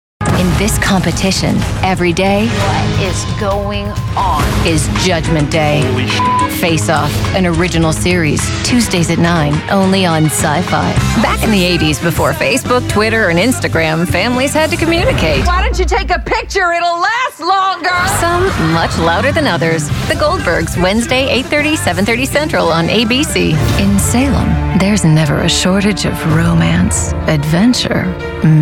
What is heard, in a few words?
women promos